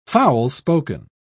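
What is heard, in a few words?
"foul-spoken", English, British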